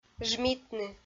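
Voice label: "жмитны", Komi, female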